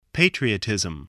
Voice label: "patriotism", English, voice